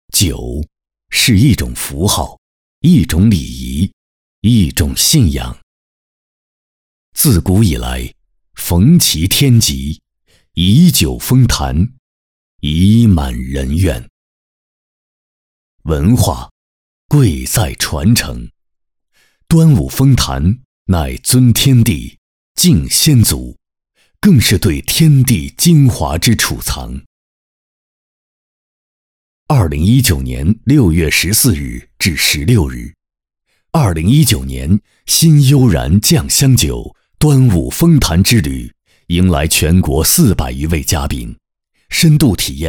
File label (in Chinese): 专题片解说词配音; 端午封坛